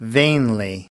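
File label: "vainly", American English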